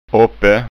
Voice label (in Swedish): "oppe", skanska ord